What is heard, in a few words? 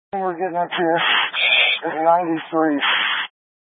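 Incident Tactical 1, Scanner audio recording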